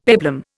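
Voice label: "peplum", Indian English; Dictionary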